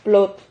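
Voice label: "plot", Spanish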